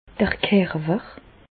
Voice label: "kerwer", Bas Rhin; medecine; corps

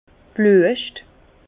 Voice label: "bluescht", Bas Rhin; vin; fleurs de vigne

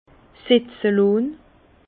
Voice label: "setze lonn", Bas Rhin; vin

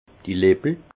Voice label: "lippel", alsace; vin; lèvres

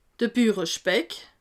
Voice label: "burespeck", alsace

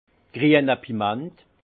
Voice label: "grienepiment", Alsace; vin; poivron vert

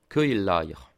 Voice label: "kojellajer", alsace

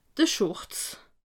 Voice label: "schurz", alsace

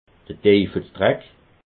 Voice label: "deifelsdreck", alsace

